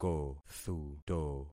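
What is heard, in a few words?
"kothuto", concatenated syllables; Affixing experiment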